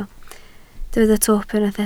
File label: "twp", Welsh